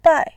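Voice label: "bai", Mandarin Chinese, tone 4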